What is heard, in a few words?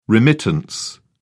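"remittance", British English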